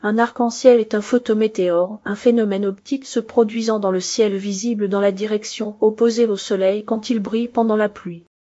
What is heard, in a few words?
speaker, fr FR; piper voices